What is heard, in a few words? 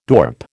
"dorp", American